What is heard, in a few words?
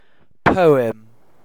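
"poem", British English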